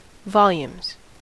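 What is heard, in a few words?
"volumes", US English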